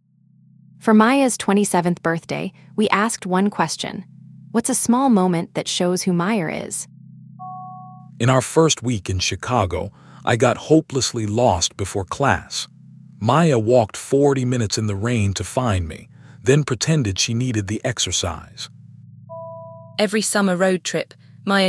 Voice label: radio sample; milestone